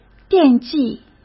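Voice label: "惦记", Mandarin Chinese; chinese-flashcards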